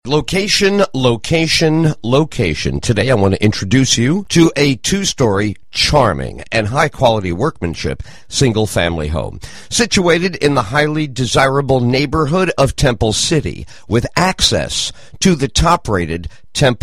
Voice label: radio podcast ad; Sultana